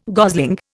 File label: "gosling", Indian